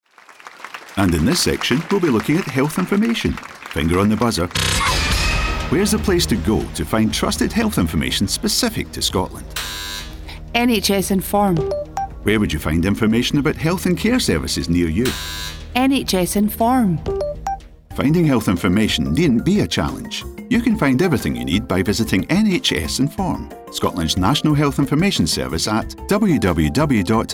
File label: TVC